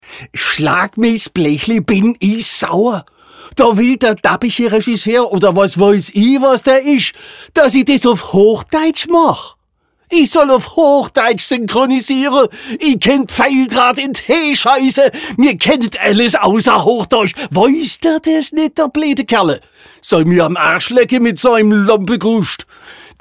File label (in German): VoiceOver Showreel Dialekt schwaebisch; LOFT VOICES